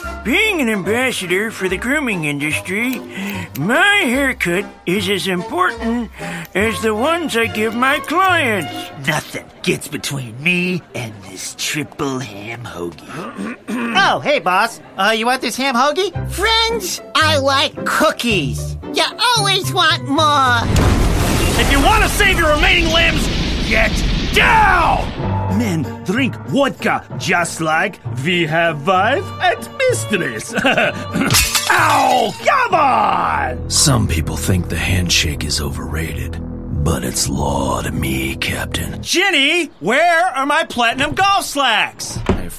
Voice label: Character